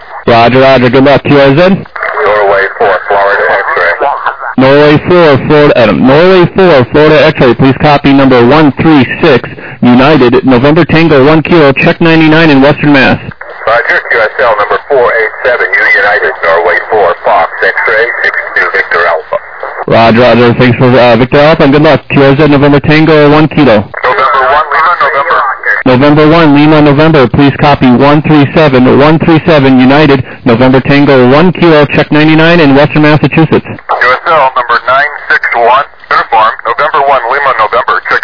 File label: SSB USB 14MHz